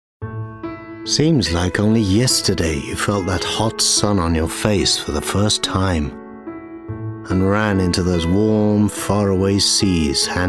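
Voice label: Strong, gritty, grounded and assured; Thompson Holidays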